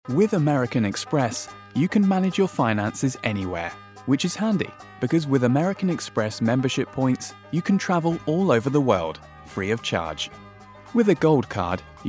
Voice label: Commercial

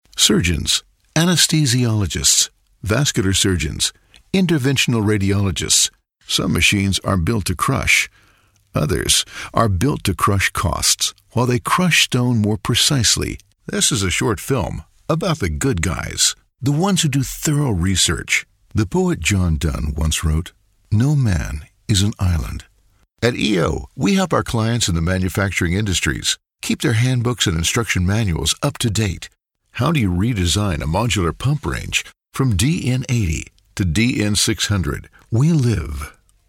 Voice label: Corporate

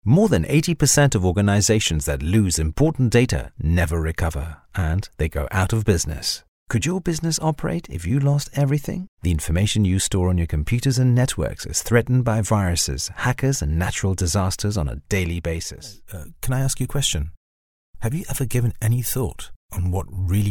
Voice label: english commercial

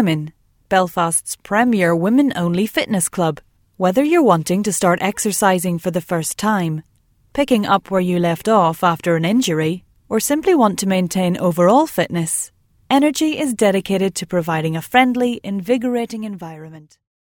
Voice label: northern irish

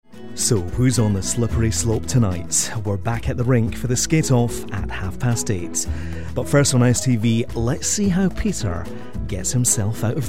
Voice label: continuity

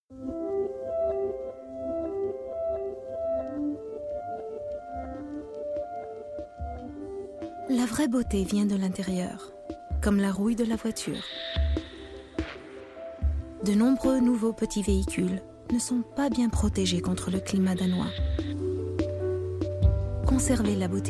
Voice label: french commercial

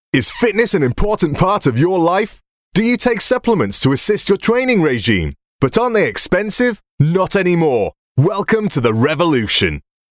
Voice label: hard sell

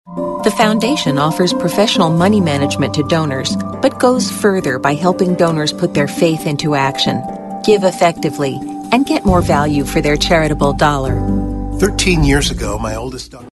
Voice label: friendly

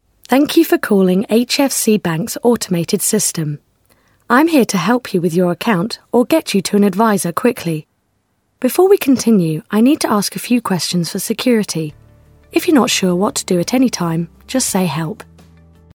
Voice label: telephone

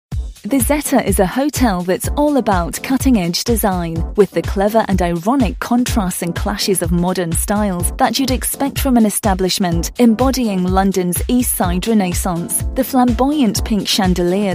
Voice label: narration

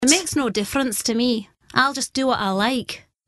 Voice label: scottish accent

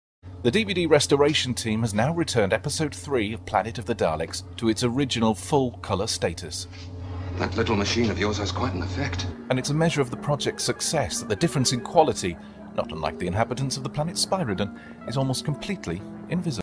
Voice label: news

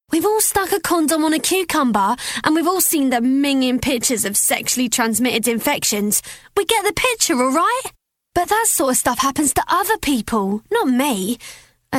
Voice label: teen

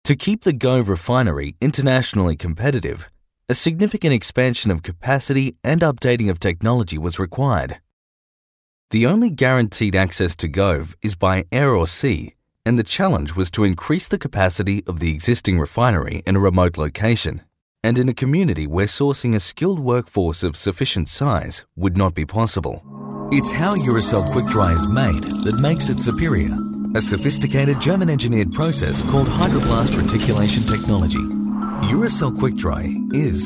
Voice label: australian

